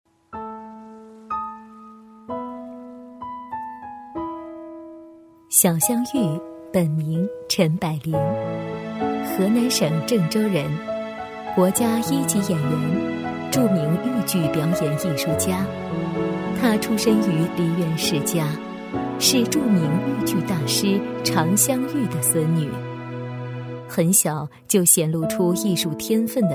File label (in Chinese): 女7-人物专题 -温情; 女7-大气温暖; 舒缓抒情